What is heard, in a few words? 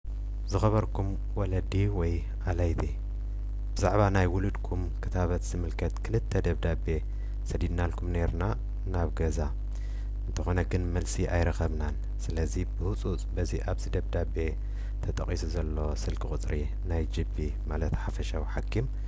Tigrinya Audio